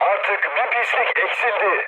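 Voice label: Turkish player killed enemy, mood med, speech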